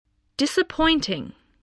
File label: "disappointing", english spelling